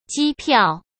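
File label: "机票" Mandarin Chinese; hsk; chinese-flashcards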